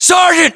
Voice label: rank sergeant, us; localized english; battlechatter